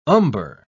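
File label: "umber", British English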